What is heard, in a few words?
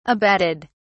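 "abetted", British English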